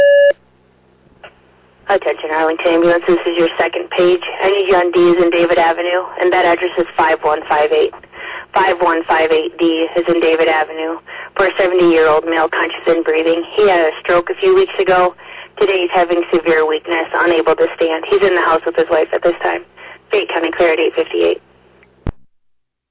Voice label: Arlington Fire, dispatch